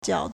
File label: "jiao4", Chinese